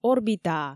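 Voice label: "орбитаа", Komi, female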